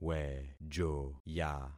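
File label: "wejoya", concatenated syllables; Affixing experiment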